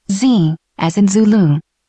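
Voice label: "Zulu", spoken; alpha tango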